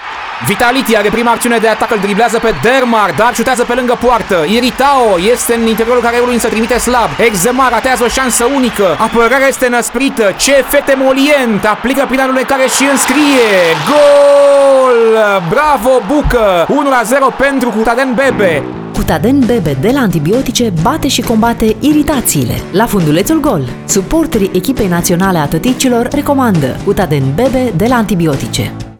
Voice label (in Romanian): spot voce feminina; antibiotice cutaden